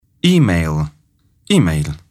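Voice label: "email", English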